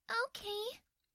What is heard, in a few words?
Cute Anime Girl "Okay"